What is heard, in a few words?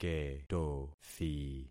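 "ketothi", concatenated syllables; Affixing experiment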